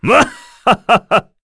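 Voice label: Vox Happy, korean; Bernheim; kingsraid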